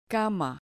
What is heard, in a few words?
"cama", Spanish